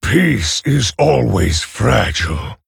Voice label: Male Orc Ogre; Hero; Mission5 Exchange

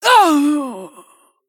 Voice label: Female Tauren Death

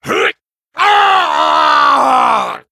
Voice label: Male Night Elf Death

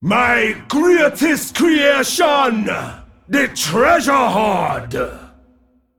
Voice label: Male Dragon

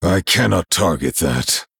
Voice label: male night elf, Error Target

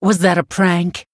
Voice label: Human Emote Oops; Prestor

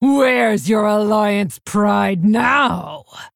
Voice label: Female Orc Play

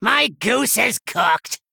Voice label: Male Gnome Concede

